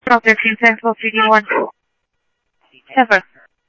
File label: PD Police, scanner audio; ThompsonPeakHigh; SCTSDLE PD - PD2 ScottsdalePolice